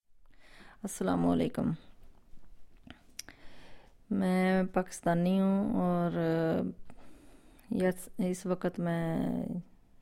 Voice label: Urdu